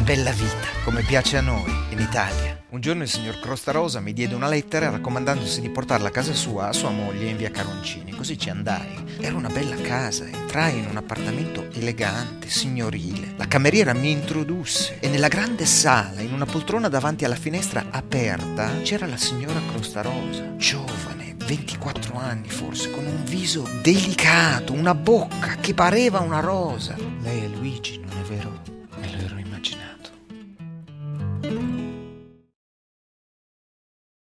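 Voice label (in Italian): voice over Italian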